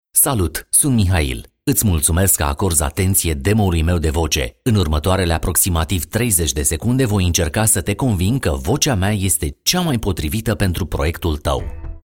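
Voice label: Romanian informative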